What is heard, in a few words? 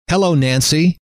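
"hello", male; trainer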